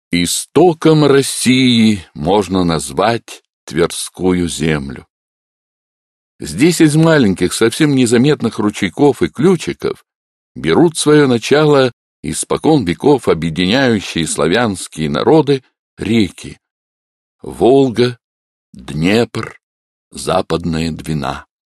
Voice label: russian male